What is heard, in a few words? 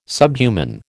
"subhuman", American